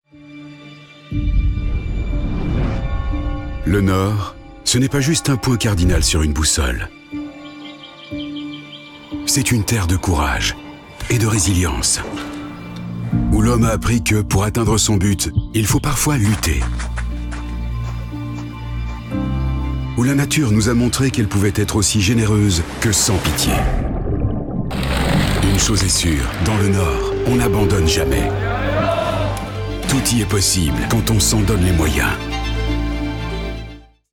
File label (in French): Narrative Compeling, Voix Off Masculine Française; AssifepF